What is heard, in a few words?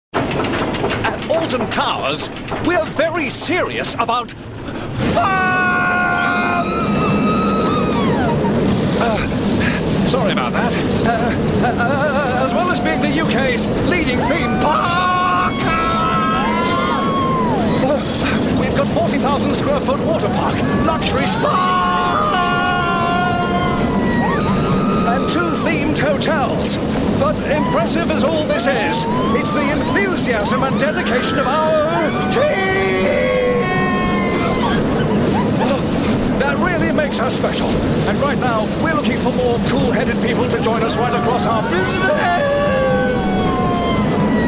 radio advert; alton towers